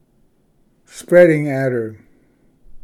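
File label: "spreading adder", English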